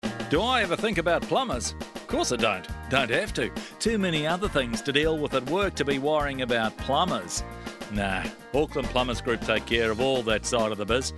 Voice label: male; Auckland Plumbers Group